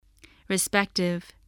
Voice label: "respective", English

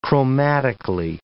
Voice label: "chromatically", English

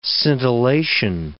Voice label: "scintillation", English

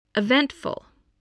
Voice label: "eventful", voice, english

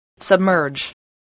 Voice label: "submerge", spelling

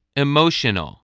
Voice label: "emotional", spelling

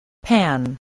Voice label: "pan", English voice

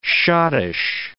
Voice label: "schottische", English

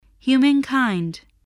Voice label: "humankind", voice spelling

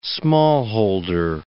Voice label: "smallholder", english

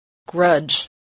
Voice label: "grudge", spelling

